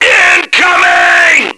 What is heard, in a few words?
incoming, radio, male